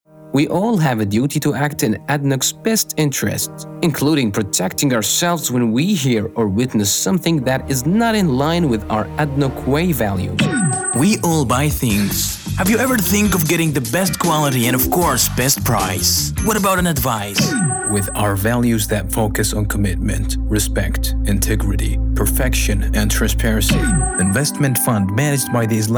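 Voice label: Arabic, male, English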